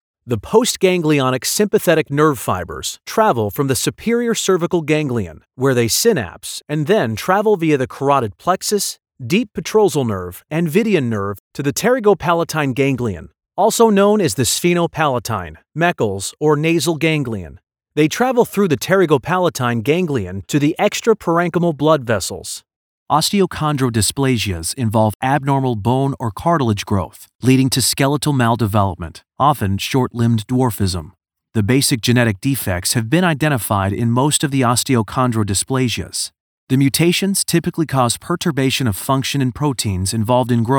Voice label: Medical Narration

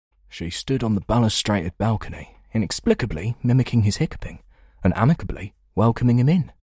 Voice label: UK London Older

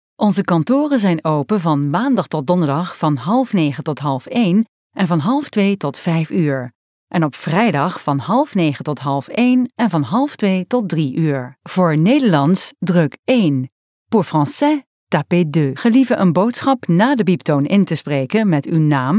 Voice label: Dutch - F; European Voices

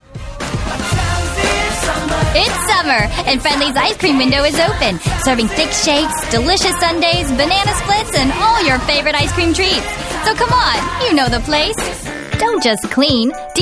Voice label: Voice Over Commercial

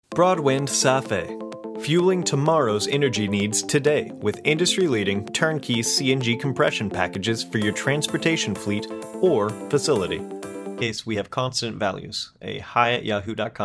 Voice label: Industrial VO

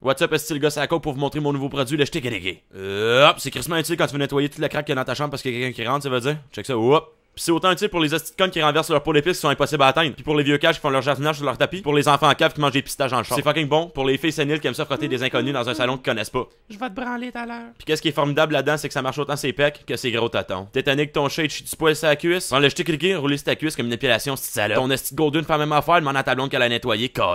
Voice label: Commercial Quebecois; Schtiky

